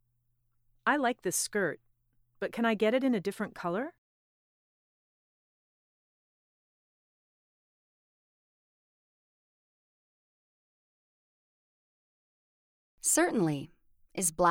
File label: Pronunciation Practice